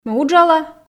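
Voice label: Komi, female; ме уджала ...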